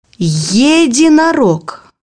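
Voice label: "unicorn", Russian; bukvar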